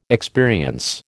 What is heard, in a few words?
"experience", American